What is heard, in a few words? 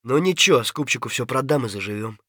scav mutter, voice; Escape from Tarkov; scav3